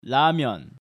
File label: "Ramen", Korean